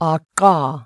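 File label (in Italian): Click (Post)alveolare apicale ("retroflessa"), consonanti non polmonari; IPA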